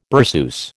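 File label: "berceuse", American English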